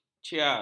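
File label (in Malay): "ciar", Malay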